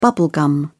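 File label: "bubble gum", English, British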